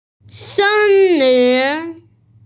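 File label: "sen lin", Mandarin Chinese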